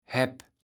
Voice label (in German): "Häbb", Platt